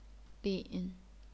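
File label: "lin", third tone, chinese pinyin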